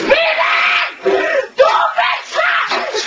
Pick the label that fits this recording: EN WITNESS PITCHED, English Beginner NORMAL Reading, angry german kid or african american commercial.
angry german kid